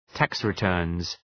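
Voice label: "tax returns", English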